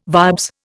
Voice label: "vibes", Indian